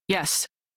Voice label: Crew Accept, Female; Dialog; en; Warframe; Railjack Crew; New Loka

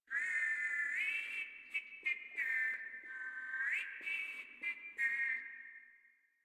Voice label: Patrol Grineer Male, Barks, Battle

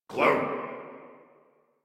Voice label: Banter; Warframe; Clem; en

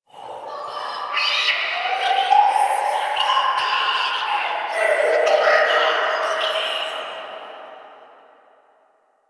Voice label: Whispers; Inf Hub Lab Behold Us; Warframe; Ambience; Entrati

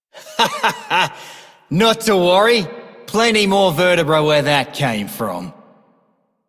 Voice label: male generic; Dialog; Warframe; Solaris Venus

